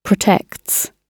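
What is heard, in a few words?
"protects", British English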